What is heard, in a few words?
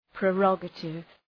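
"prerogative", English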